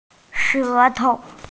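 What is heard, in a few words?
"shé tou", Mandarin Chinese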